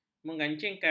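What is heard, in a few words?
"mengancingkan", Malay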